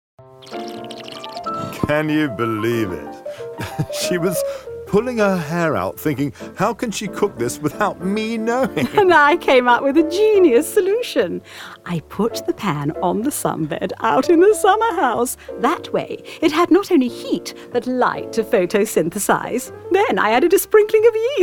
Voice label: drama upper class woman